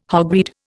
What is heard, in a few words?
"hogweed", Indian